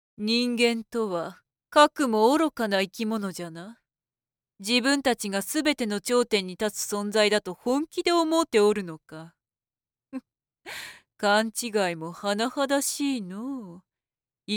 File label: female